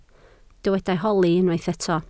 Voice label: "holi", Welsh